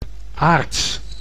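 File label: "aards", Dutch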